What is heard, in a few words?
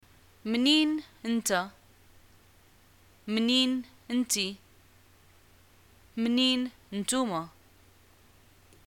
where r u from, arabic, ma